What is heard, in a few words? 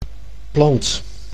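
"plant", Dutch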